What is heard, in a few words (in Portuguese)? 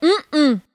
Negaçao intensa